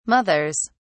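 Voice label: "mothers", British English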